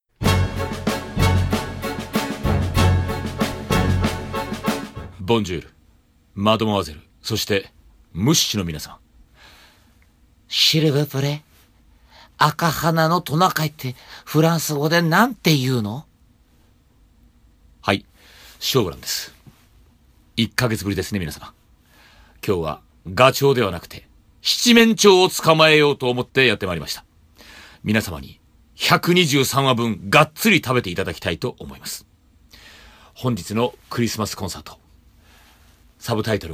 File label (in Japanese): クリコン開演前アナウンス